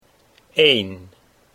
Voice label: "een", Dutch

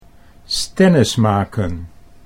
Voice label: "stennis maken", Dutch

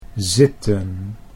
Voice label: "zitten", Dutch

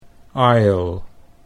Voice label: "aisle", Dutch English

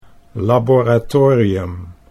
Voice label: "laboratorium", Dutch

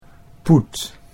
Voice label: "poet", Dutch

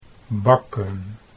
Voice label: "bakken", Dutch

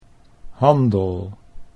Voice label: "handel", Dutch

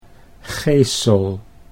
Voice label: "gesel", Dutch

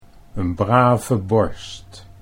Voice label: "n brave borst", Dutch; 'an obedient, harmless person'

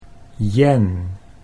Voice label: "jen", Dutch

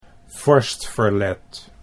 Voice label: "vorstverlet", Dutch

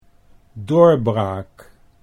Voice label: "doorbraak", Dutch

